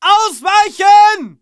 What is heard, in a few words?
fall back, german